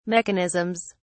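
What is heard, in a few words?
"mechanisms", English, British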